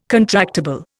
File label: "contractible", Indian